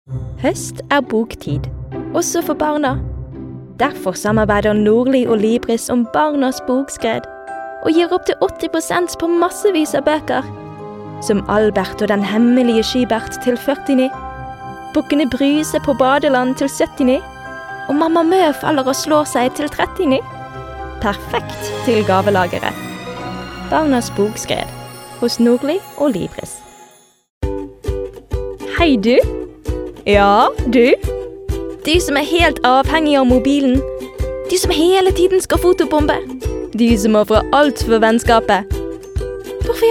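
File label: Norwegian commercial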